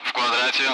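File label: Speech, Russian, Target grid; Allied Flight; DCS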